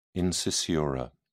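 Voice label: "incisura", British English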